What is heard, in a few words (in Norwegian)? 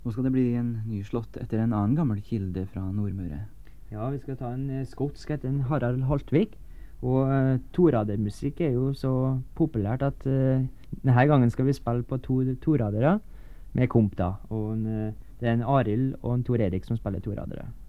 Tale